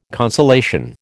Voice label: "consolation", American